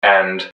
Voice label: "change and", english man, subway announcers; MetrostroiAddon

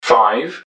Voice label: "five", english man; subway announcers; MetrostroiAddon

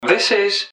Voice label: this, english man, subway announcers; MetrostroiAddon

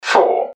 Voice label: "four", english man; subway announcers; MetrostroiAddon

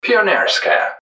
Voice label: "pionerskaya", english man; subway announcers; loopline

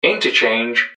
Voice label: "interchange", english man, subway announcers; MetrostroiAddon

